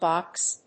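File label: "vox", English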